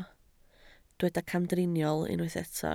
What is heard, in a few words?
"camdriniol", Welsh